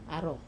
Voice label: "aro", Wamesa; rope trap